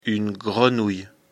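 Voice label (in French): "grenouille", French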